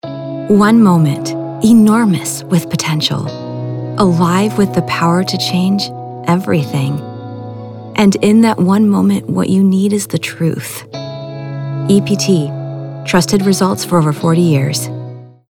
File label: Real Person Empathetic